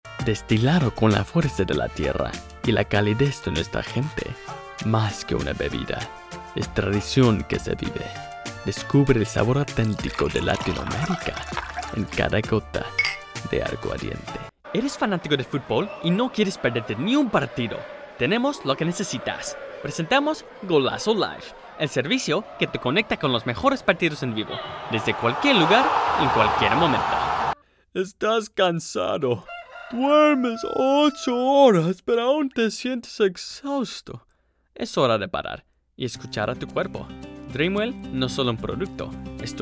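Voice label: Spanish COMMERCIAL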